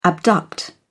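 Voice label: "abduct", British English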